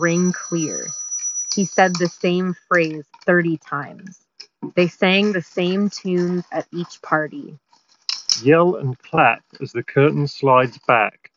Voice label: Office microphone sample; Sony WH 1000xm4